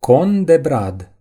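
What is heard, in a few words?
"pine cone", Romanian; gcompris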